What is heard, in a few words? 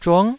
"zhung9", Taiwanese